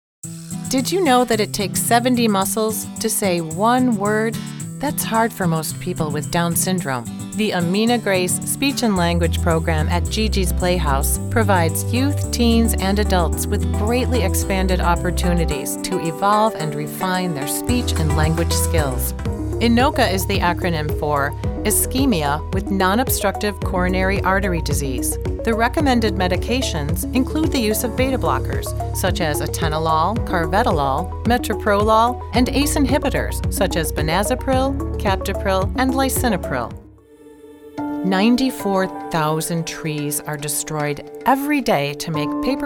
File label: Narration